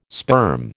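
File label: "sperm", American English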